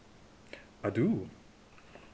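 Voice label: "adieu", Canadian, male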